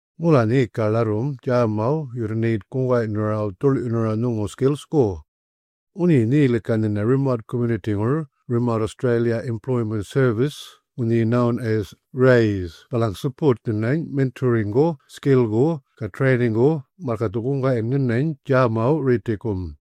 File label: Audio Message Yolngu Matha; RAES